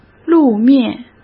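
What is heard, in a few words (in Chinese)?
"路面", Mandarin Chinese; hsk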